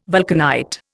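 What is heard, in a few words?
"vulcanite", Indian English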